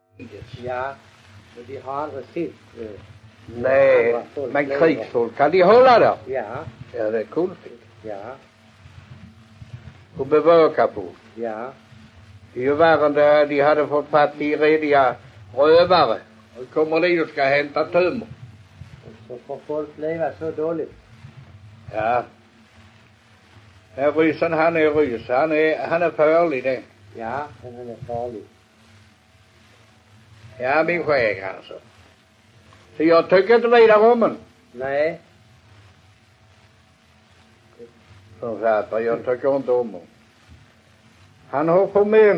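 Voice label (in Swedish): Allerum Skåne, dialekter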